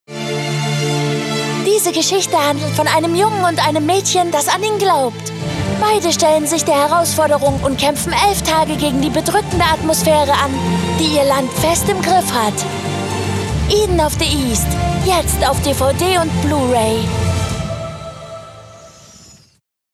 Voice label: TV Spot; Eden Of The East; Universal